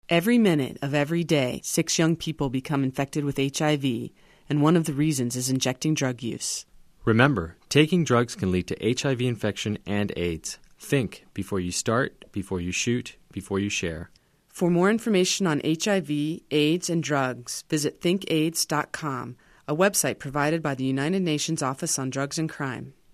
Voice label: spot usa